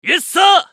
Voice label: ソルジャー男 選択; リボルドウェソルジャー

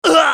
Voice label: ソルジャー男 被ダメージ; リボルドウェソルジャー

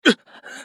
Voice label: ウィザード男･被ダメージ

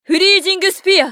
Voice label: ウォーロック女; フリージングスフィア

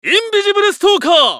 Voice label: ウィザード男 インビジブルストーカー

